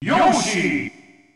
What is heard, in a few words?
Announcer; Yoshi; SSB